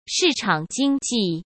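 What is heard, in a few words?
"市场经济", Mandarin Chinese; hsk; chinese flashcards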